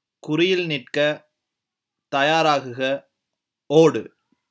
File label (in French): a vos marques prets courez traduction tamoul